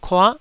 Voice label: "kua9", taiwanese syllables